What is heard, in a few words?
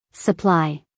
"supply", us female; everyone-can-use-english